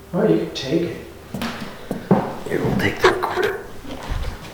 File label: male voice make them quit, farm